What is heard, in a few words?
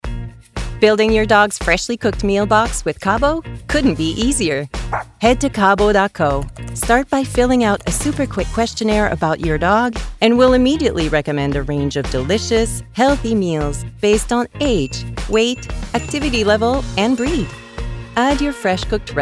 Corporate; Kabo